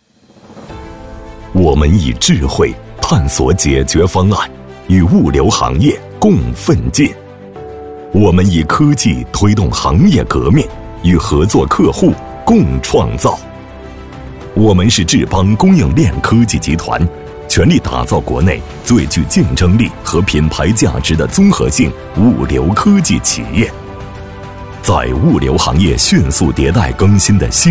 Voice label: 男 宣传片; 志邦供应链

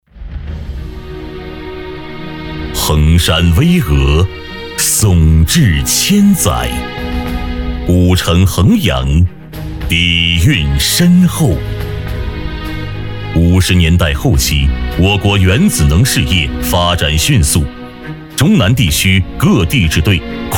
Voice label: 男 宣传; 南华大学医院

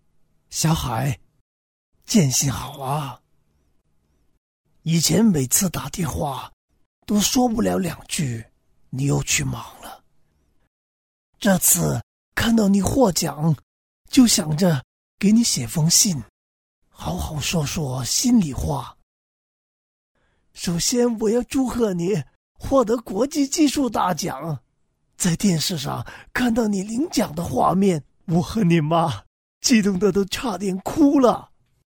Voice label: 男 广普, 粤男; 父亲

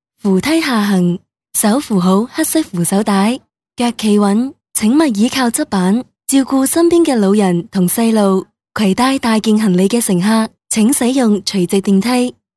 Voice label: 女【提示】扶梯下行, 粤女

